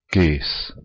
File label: "ges", Anglo Saxon